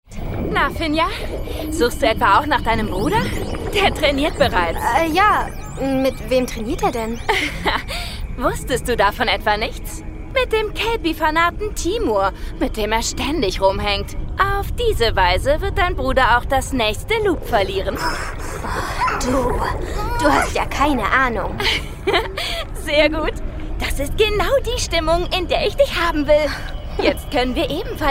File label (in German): hoerspiel; rulantica der feuerberg